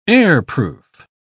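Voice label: "airproof", British English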